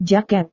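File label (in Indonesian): "jaket", Indonesian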